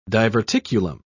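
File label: "diverticulum", British English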